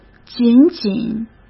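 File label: "仅仅", Mandarin Chinese; chinese-flashcards; hsk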